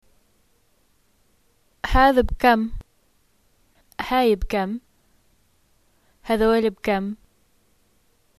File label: how much is this, arabic levantine